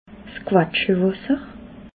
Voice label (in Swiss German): "quetschelwasser", alsace